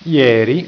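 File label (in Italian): "ieri", Italian; tijden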